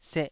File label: "seh2", taiwanese syllable